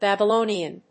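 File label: "Babylonian", English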